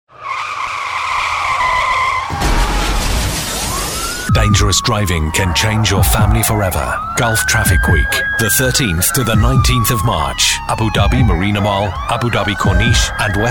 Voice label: english british

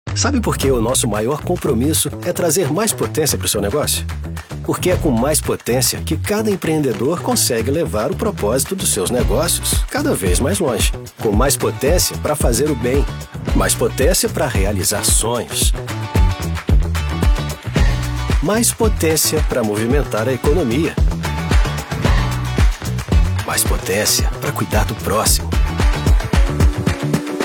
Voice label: portuguese brazilian automotive

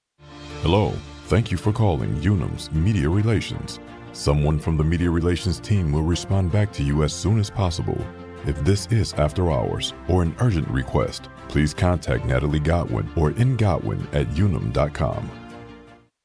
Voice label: english american ivr

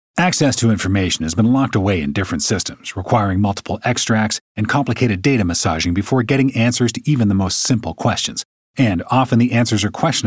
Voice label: english canadian corporate videos

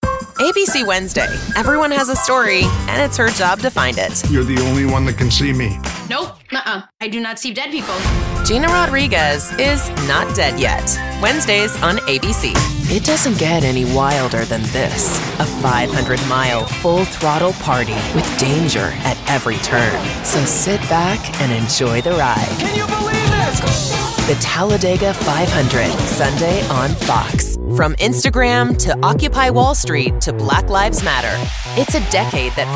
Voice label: english american promos

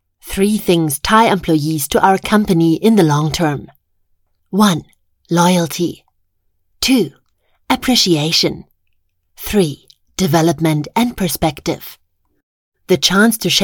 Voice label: english e-learning

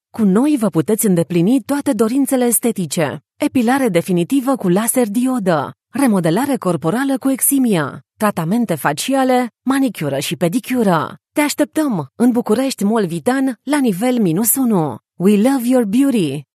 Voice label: romanian promos

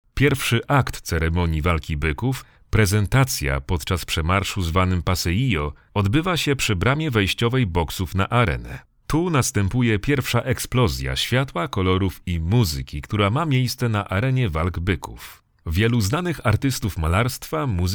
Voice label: polish audio guides

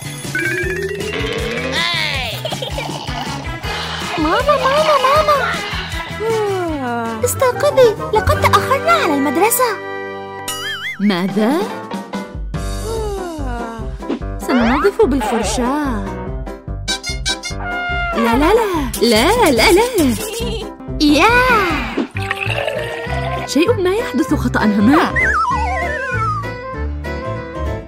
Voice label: arabic animation

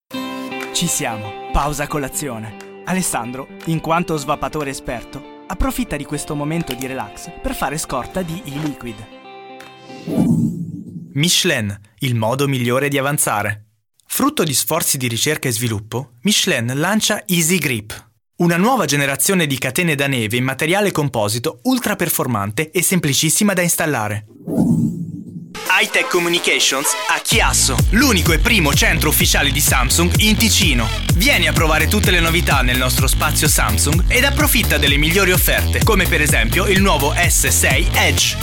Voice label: italian commercial

